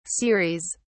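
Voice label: "ceres", British English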